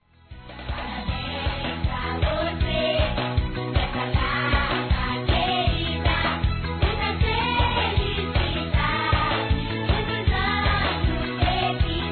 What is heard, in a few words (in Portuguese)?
NEUTRO PARABÉNS M; Telemensagens 2017; Aniversários; Aniversário Infantil